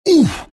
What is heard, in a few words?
pain; bristleback